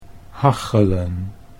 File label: "hachelen", Dutch